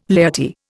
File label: "laity", Indian